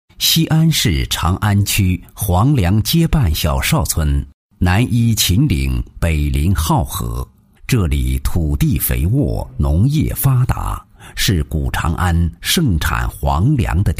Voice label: 男, 国语男声配音 磁性浑厚抒情; 布絮鞋

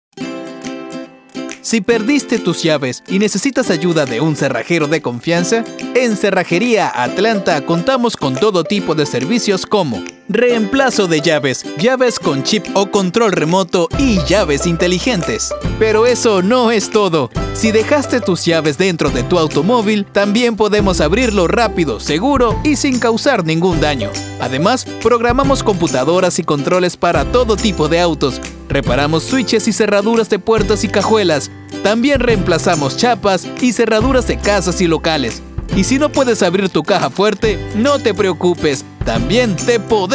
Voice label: 西班牙语男 轻快宣传片

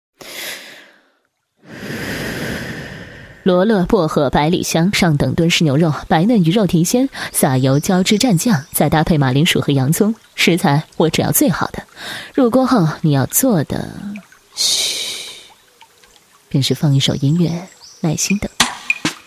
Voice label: 女 形象片, 国语女声配音; 优雅; 环球美食密探

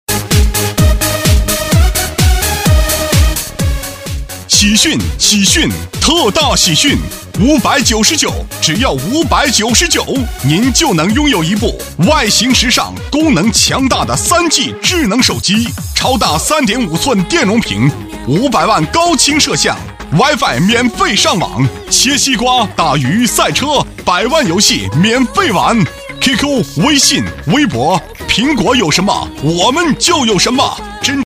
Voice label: 男 促销 智能手机, 国语男声配音 促销品牌广告

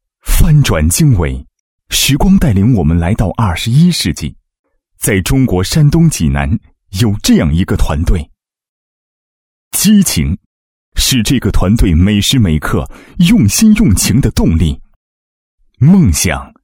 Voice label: 男, 激情力度; 梦想团队介绍-物业公司

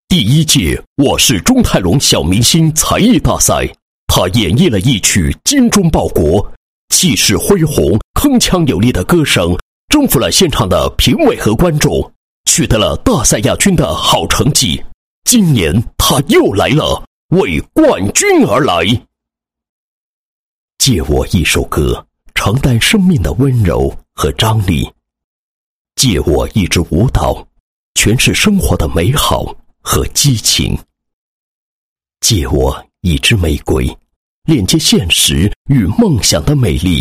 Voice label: 男-开场词-开场视频文案——梦想录音, 配音案例

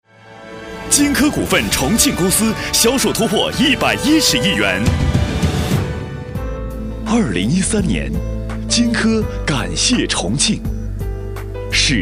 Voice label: 男 专题 温柔娓娓道来

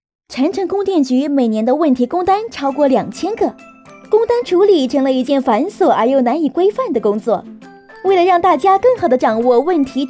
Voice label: 女 MG动画 时尚活泼; 供电局工单流程介绍

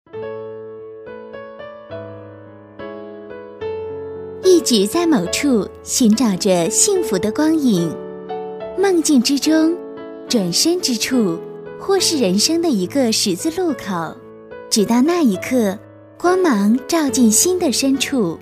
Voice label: 女 年轻甜美, 广告促销 珠宝 配乐; 国语女声配音

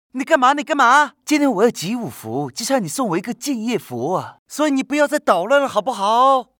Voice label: 男 模仿; 罗志祥

